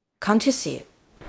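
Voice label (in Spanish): Voice Acting, Spanish, female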